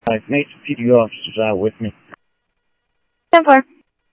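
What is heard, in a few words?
Sheriff East County, scanner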